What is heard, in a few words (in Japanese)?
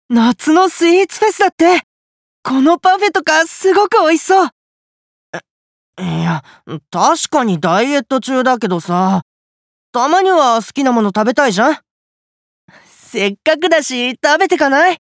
友人と会話中学生